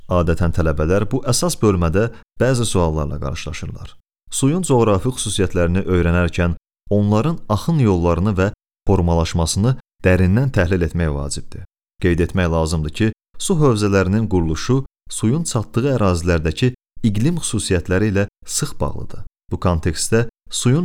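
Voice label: Azerbaijani male e-learning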